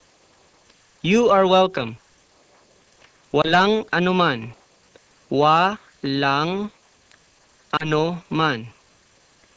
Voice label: Tagalog Welcome

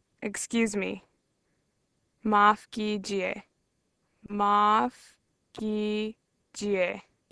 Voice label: Urdu Excuse

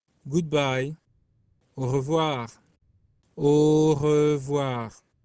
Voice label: French Good Bye

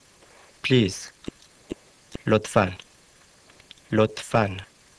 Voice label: Persian Please

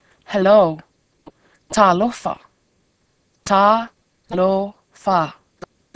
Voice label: Samoan Hello

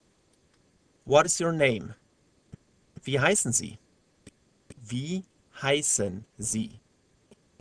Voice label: German Name

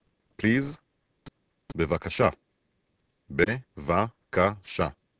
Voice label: Hebrew Please

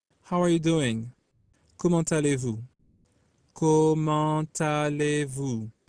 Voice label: French Greet

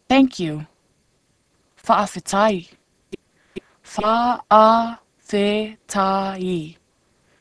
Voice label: Samoan Thanks

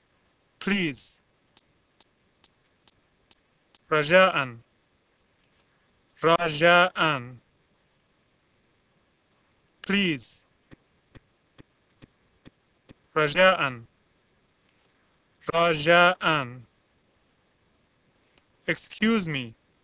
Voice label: Arabic Please

